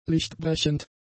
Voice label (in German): "lichtbrechend", German; adjectives